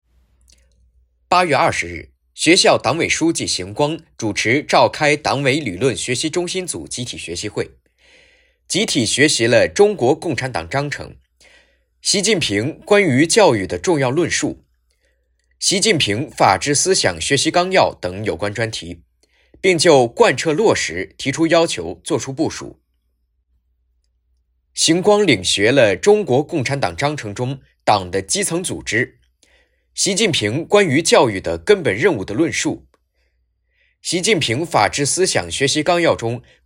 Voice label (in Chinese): 学校新闻